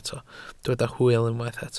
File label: "hwyl", Welsh